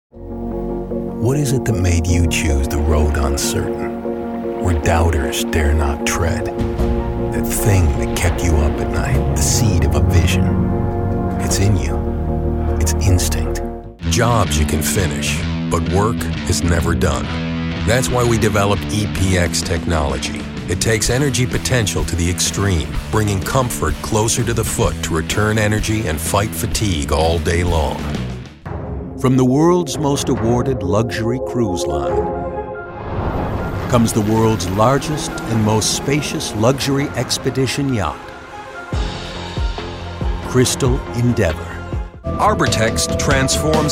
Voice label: men corporate